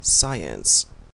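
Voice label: "science", Canadian English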